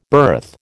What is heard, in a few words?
"birth", American